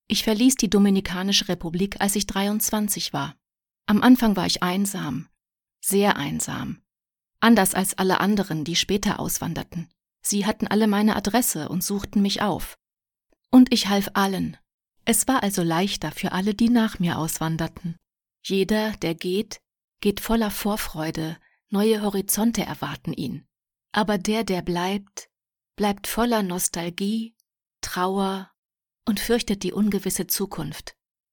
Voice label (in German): Dokumentarfilm; LOFT VOICES